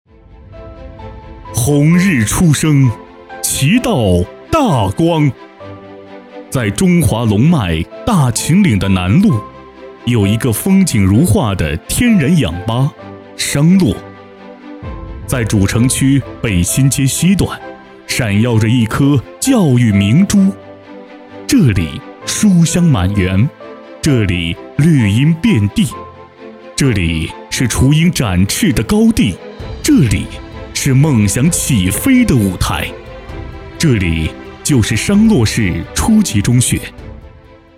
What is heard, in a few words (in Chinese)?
专题片配音解说词; 商洛市初级中学; 放飞梦想